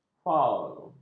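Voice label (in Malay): "faul", Malay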